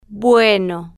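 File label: "bueno", slow, spanish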